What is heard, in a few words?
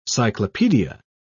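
"cyclopedia", British English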